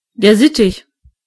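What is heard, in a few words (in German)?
"parakeet", German; gcompris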